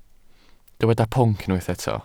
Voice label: "pwnc", Welsh

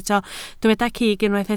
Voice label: "cig", Welsh

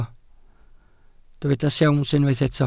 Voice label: "siawns", Welsh

